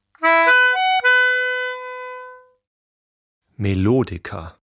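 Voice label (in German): "Melodica", German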